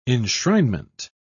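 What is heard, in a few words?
"enshrinement", British English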